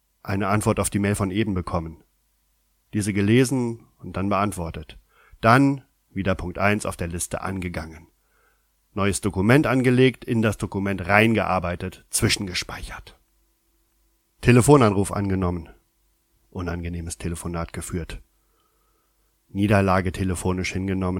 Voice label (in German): Hoerspiel